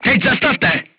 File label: voice message short stop, crew tanks czech